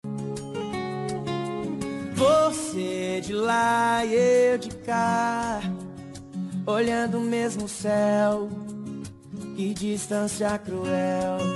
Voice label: romant fem, Telemensagem Romântica, Voz Feminina

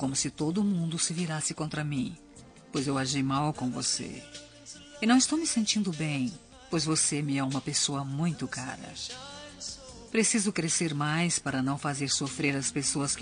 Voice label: desculpa amizade fem, Reconciliação Amizade – Voz Feminina

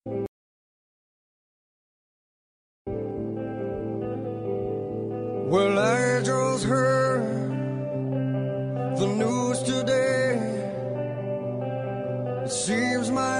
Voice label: Voz Masculina; Telemensagem de Pedido; Quer Namorar

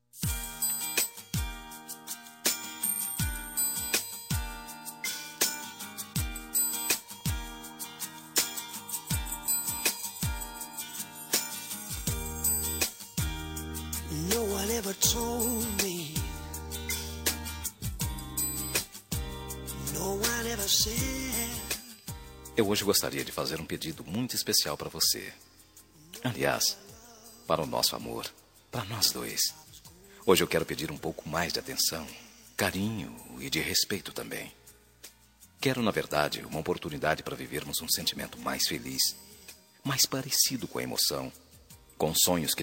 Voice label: crise masc, Telemensagem Relacionamento Crise – Voz Masculina

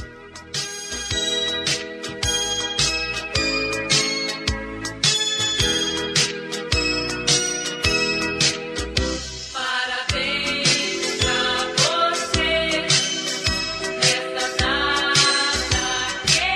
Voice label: infantil madrinha, Aniversário Voz Infantil – Madrinha – Voz Masculina

